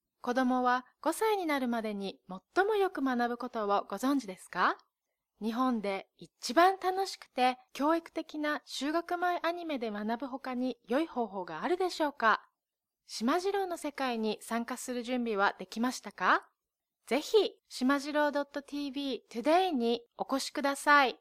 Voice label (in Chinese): 日语女, 干音, 叙述